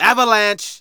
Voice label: Narration; Ollie King

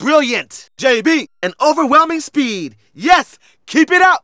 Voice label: Narration; Ollie King

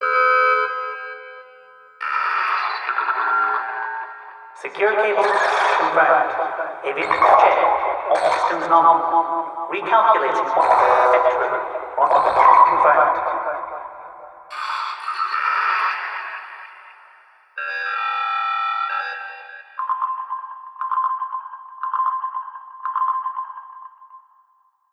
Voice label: Announcement

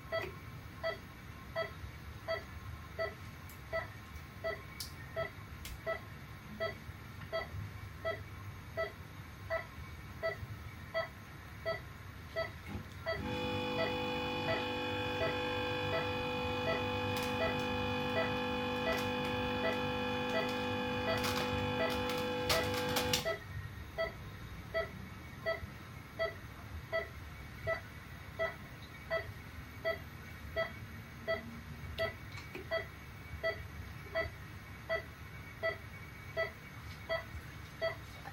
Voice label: Voice Acting bad ending heart monitor; Five Nights at Ronald's

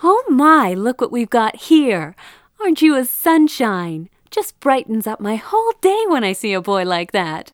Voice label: female meet player

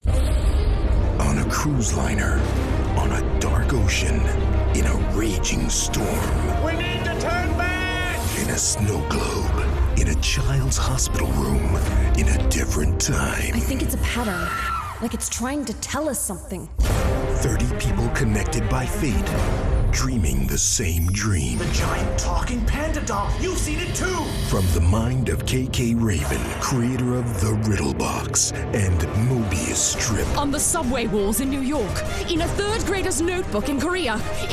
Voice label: (Commercial); The Charade

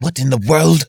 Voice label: generic male ethnic wondering

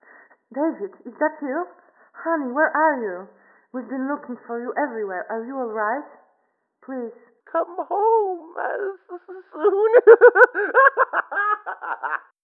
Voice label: Voices Phone

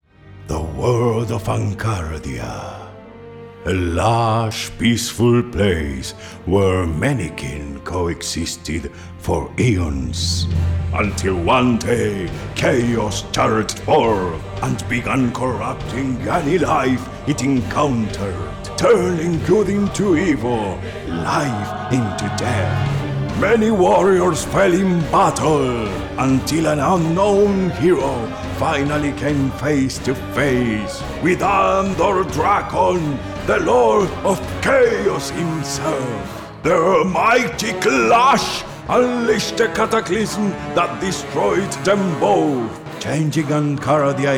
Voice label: Voice+Music; Tapestry

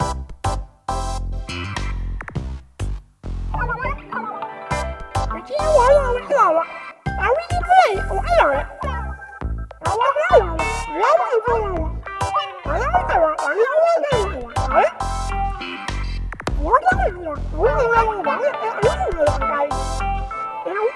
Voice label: Commercial; Commercial 5 (Man o' Wardrobe)

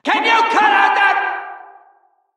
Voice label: Prisoner Exclaim

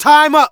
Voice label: Narration

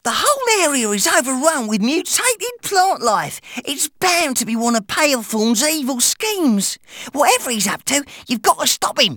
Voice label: Voices English

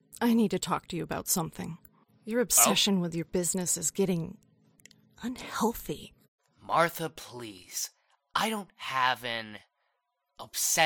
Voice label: Voice Acting, Cutscene; Five Nights at Ronald's

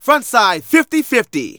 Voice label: Narration

